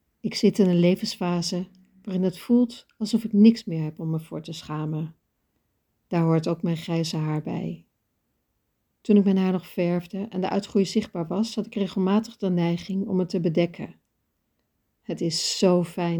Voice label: interview fragment